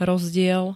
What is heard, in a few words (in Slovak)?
"rozdiel", slovenské